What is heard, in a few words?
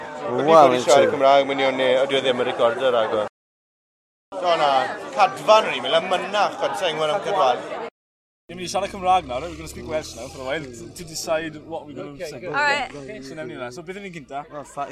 Wales Welsh White Males 30s; Europe